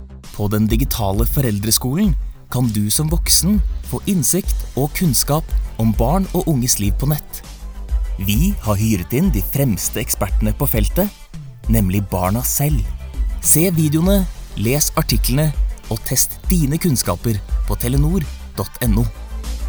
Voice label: norwegian commercial; Norwegen; norwegischer Synchronsprecher mit Sitz in Oslo, Norwegen

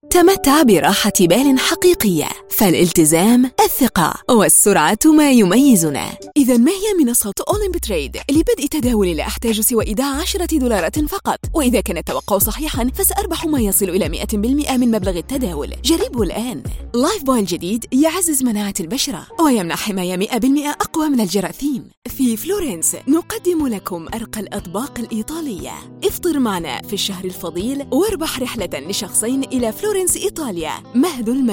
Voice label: arabic commercial

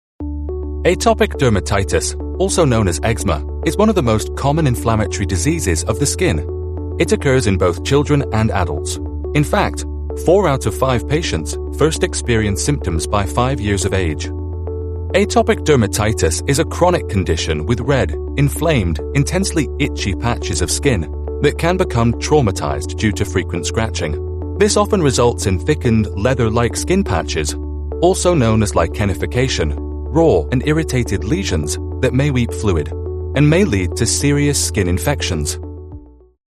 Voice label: english international medical narration; locutor profesional de habla inglesa

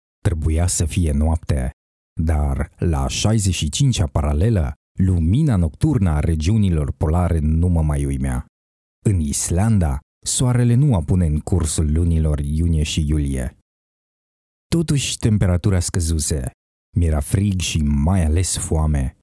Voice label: romanian audiobooks; Natural

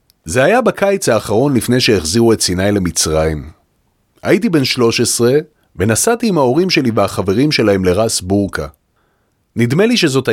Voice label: hebrew audiobooks

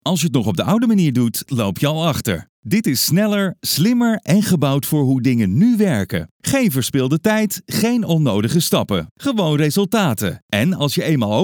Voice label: dutch promos

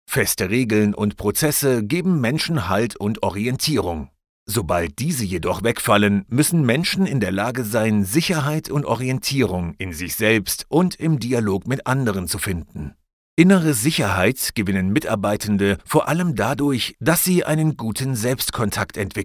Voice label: german narration

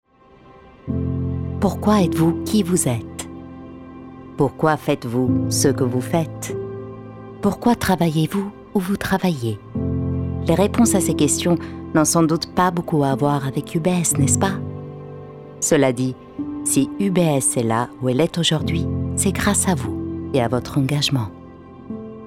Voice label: french corporate videos

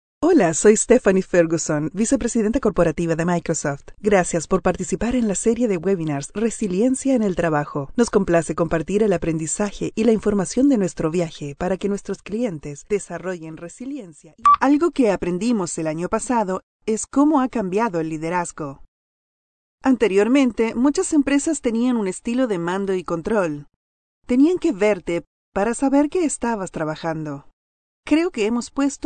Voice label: spanish latin american e-learning

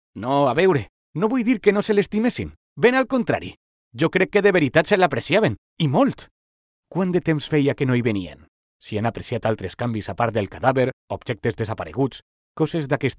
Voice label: catalan video games

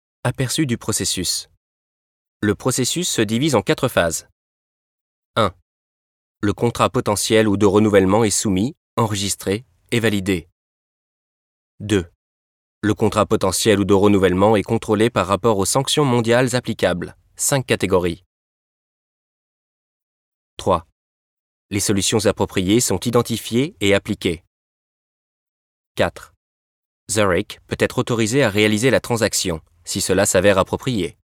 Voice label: french narration

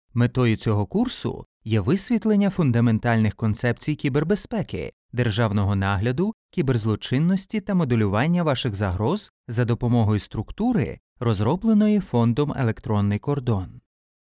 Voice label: ukrainian e-learning

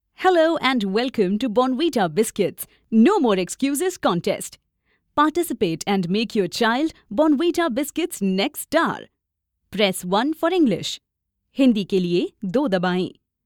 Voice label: English Indian IVR

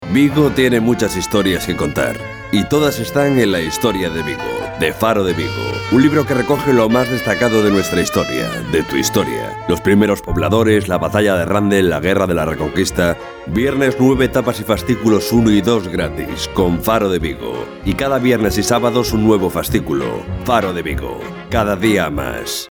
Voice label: spanish promos

